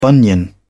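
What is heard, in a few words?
"bunion", British English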